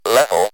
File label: "level", Speak & Math Speech